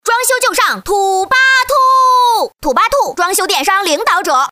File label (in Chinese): 广告 家居家电 童声广告 可爱; 土巴兔童声广告